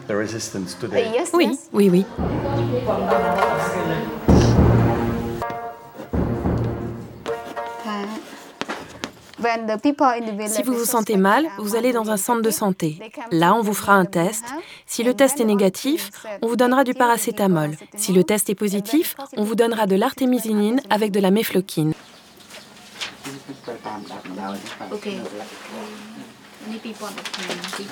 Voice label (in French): Voice Over Documentaire Paludisme